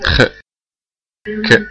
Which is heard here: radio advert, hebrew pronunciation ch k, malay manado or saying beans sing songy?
hebrew pronunciation ch k